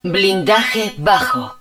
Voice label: Armour Low, Spanish